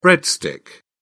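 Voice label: "breadstick", British English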